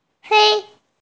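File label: "fei1", Mandarin Chinese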